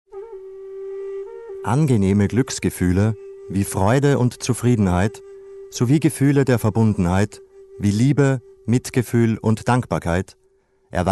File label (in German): DOKU